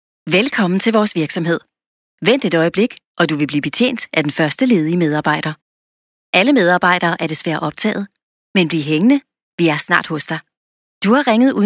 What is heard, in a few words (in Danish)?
Kvinde formel; danske professionelle indtalinger